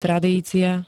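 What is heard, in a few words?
"tradícia", Slovak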